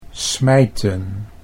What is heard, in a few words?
"smijten", Dutch; 'to throw angrily'; think of: 'to smite'